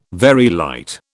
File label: "Very light", British